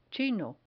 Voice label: "chino", Spanish

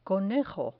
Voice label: "conejo", Spanish

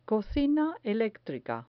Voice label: "cocina electrica", Spanish

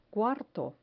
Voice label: "cuarto", Spanish

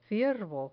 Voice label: "ciervo", Spanish

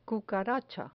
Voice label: "cucaracha", Spanish

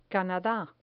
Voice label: "canada", Spanish

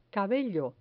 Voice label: "cabello", Spanish

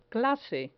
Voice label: "clase", Spanish

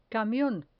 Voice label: "camion", Spanish

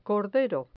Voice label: "cordero", Spanish

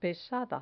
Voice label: "pesada", Spanish

